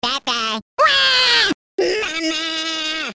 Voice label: bye bye cry mama; baby luigi